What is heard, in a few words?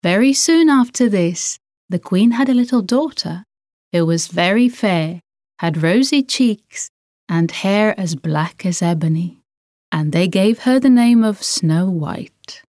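English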